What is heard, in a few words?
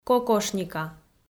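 "кокошника", Komi, female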